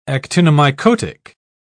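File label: "actinomycotic", British English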